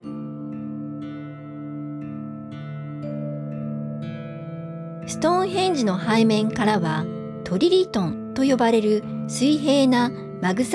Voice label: Japanese; Stonehenge